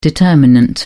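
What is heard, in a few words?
"determinant", British English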